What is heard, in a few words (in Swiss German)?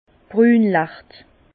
"brunlacht", Bas Rhin; vin